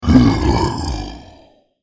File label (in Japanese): ゾンビボイス